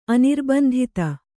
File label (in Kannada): "ಅನಿರ್ಬಂಧಿತ", kannada